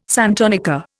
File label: "santonica", Indian